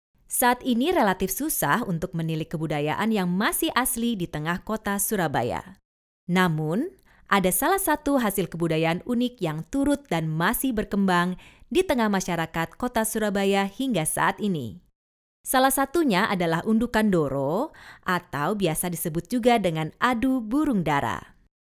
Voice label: ELearning Indonesian Female